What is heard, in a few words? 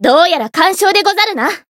Voice win, jp; azami